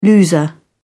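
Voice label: "loser", British English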